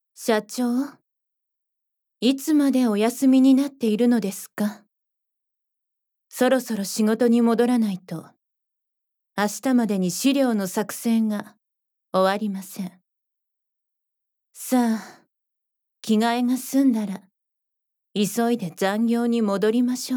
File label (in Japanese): 秘書さんの微デレおあずけ